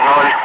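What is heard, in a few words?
"5", spoken; Ka-50; Wingman; Digits; DCS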